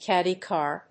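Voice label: "caddie car", English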